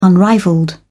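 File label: "unrivalled", English, British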